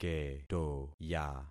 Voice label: "ketoya", concat syllables; Affixing experiment